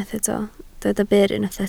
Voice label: "byr", Welsh

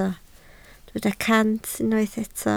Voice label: "cant", Welsh

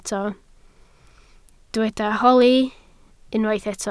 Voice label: "holi", Welsh

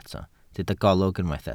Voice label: "golwg", Welsh

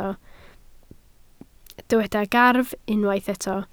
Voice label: "gafr", Welsh

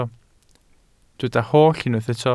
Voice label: "holl", Welsh

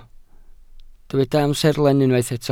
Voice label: "amserlen", Welsh

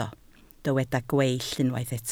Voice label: "gweill", Welsh

